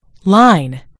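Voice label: "line", British English; gcompris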